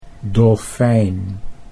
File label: "dolfijn", Dutch